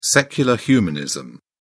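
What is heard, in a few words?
"secular humanism", British English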